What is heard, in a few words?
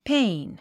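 "pane", English; péin ペイン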